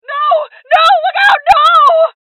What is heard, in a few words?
Voices HeavyLosses Female